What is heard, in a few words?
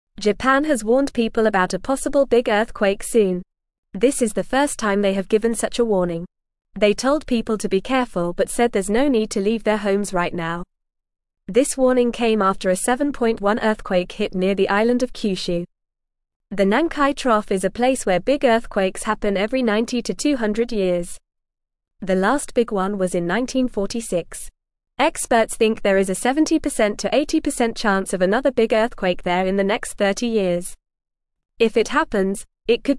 English Lower Intermediate FAST Reading; Japan Warns People About Possible Big Earthquake; English Newsroom